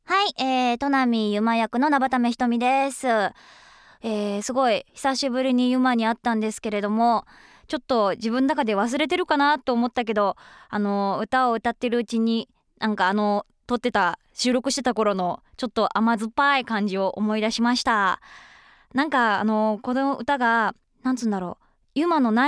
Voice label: Voice Message; ToHeart2

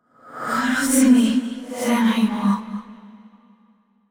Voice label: frustrated; yamiko

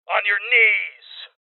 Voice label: Voices Order To Surrender Male

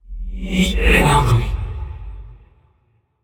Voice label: in pain; aragami

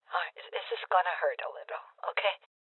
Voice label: Voices Heal Female Old; rebel cops 2019

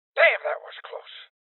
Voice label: Voices Armor Shot Male Old; rebel cops 2019 gamerip

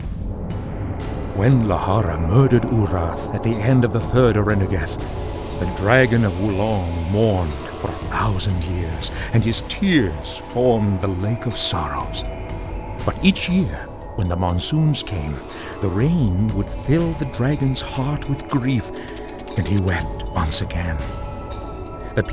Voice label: narration; wolong

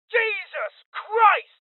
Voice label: Male Young; rebel cops 2019; Voices HeavyLosses

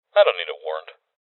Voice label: Voices OpenSafe Male; rebel cops 2019 gamerip

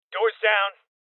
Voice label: Voices Door Kick Male Young; rebel cops 2019 gamerip

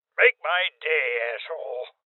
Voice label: Voices Freeze Male Old; rebel cops 2019 gamerip

